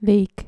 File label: "lake", dutch